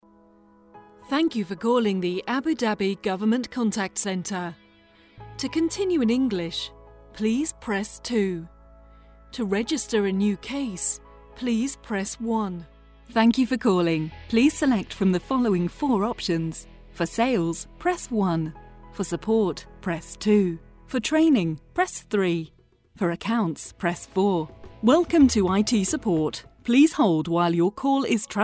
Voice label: IVR